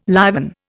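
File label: "liven", Indian English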